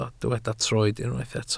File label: "troed", Welsh